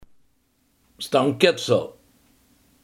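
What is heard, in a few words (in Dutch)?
hekwerk, Limburgse gesproken woordenboeken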